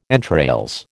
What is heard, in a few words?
"entrails", American